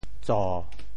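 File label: "tso7", Teochew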